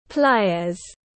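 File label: "Pliers", English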